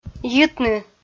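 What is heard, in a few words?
"йитны" Komi, female; audio dict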